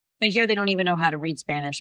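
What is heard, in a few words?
Voice Acting es, female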